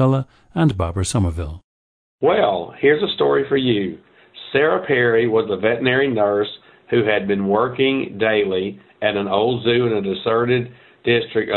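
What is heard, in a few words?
louisiana, International Dialects of English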